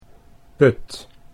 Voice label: "put", Dutch